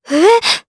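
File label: Vox Sur Japanese; kingsraid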